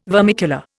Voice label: "vermicular", Indian